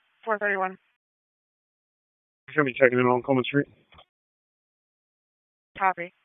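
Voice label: Police Southeast District Dispatch; Raleigh; wake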